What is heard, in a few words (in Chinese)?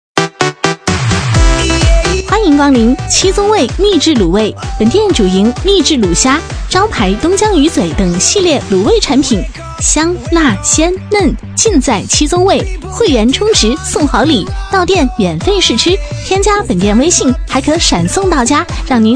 女 促销 秘制卤味; 七宗味秘制卤味